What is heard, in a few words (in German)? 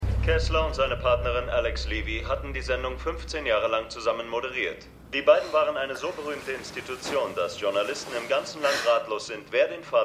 Nachrichtensprecher; The Morning Show